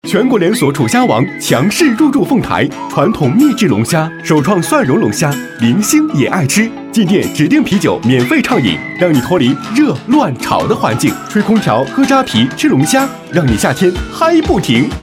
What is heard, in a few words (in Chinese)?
男 广告 年轻风格; 虾; 楚虾王